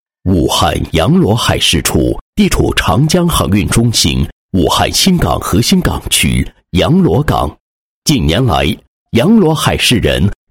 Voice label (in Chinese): 男 引言; 阳逻处廉政讲座引言